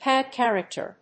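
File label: "pad character", English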